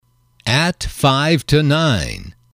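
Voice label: five To Nine, english, male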